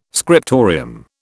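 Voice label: "scriptorium", British English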